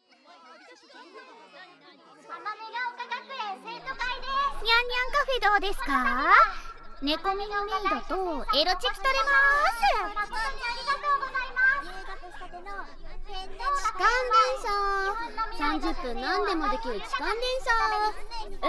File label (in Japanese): ようこそ ASMR 研究会へ